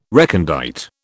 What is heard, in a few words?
"recondite", British